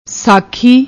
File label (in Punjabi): "sakhi", Punjabi; ਸਾਖੀ